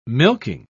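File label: "milking", British English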